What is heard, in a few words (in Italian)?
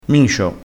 "micio", crespinese